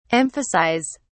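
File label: "emphasise", British English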